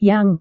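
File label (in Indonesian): "yang", Indonesian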